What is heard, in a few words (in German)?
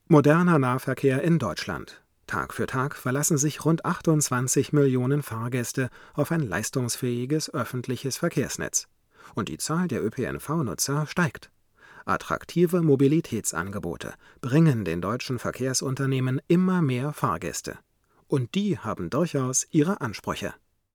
m990 unbearbeitet, sprecherraum mikrofonvergleich; spl track one